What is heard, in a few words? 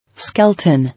"Skelton", English